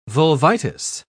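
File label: "vulvitis", British English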